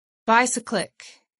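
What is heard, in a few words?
"bicyclic", English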